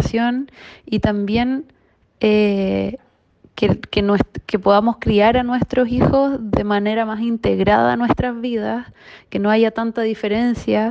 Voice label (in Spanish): mujer